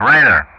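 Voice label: "radar", English; Ka-50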